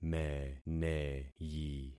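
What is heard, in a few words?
"meneyi", concatenated syllables; Affixing experiment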